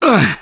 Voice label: Hurt Minor, russian; Gambler